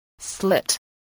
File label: "slit", voice, spelling